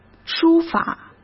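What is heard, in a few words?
"书法", Mandarin Chinese; chinese-flashcards; hsk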